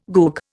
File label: "goog", Indian